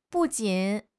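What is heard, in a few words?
"bu4 jin3", Chinese; HSK; HSK4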